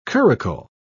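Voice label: "curricle", British English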